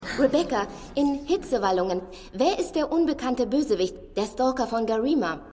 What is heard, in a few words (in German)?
Frau, Stimmproben